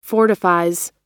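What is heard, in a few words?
"fortifies", American English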